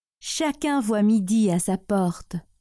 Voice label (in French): "Chacun voit midi a sa porte", French